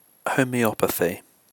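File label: "homeopathy", British English; pronunciations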